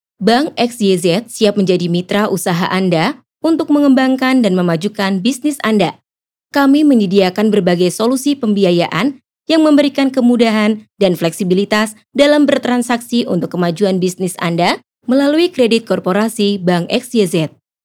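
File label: FORMAL Indonesian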